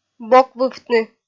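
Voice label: "боквывтны", Komi, female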